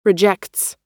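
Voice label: "rejects", American English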